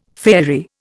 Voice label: "faerie", Indian